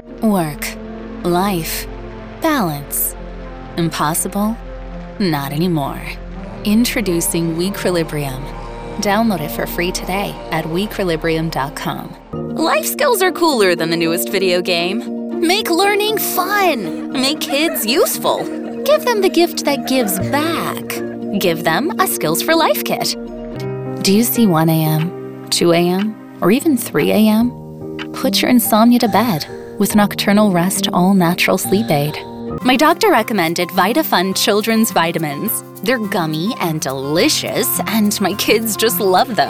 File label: English Commercial